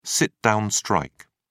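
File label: "sit down strike", British English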